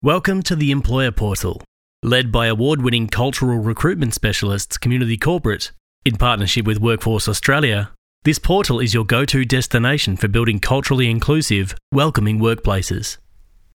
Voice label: Corporate / Inclusive Workplaces Portal, Natural Male Voice